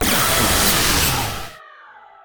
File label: pain one; Quake 4 Alpha; id software; strogg; valkaryne